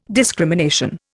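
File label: "discrimination", Indian English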